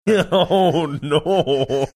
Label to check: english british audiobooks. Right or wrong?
Wrong